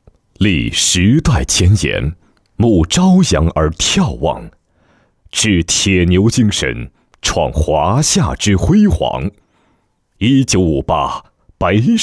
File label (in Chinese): 人物专题 男 大气韵味; 教师赋